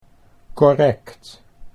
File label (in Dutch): "correct", Dutch